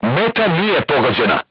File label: voice message armor not pierce, crew tanks serbian